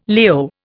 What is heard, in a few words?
"Leo", Indian